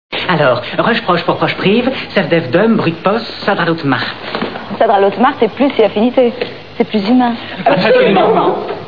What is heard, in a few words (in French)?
annonce